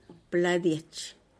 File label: "pladiech" plate for grinding